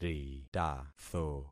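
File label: "ritatho", concatenated syllables; Affixing experiment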